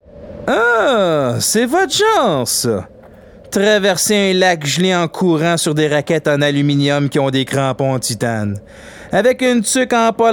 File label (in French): Pub jouee